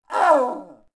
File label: Gasmask Die Vox; Beyond the Sword; Units